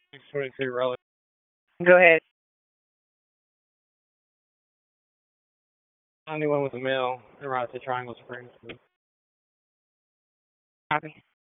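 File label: Police Southwest District Dispatch, p25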